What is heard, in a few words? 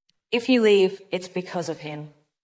Voice Acting es F